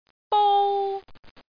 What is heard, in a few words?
"bou1", cantonese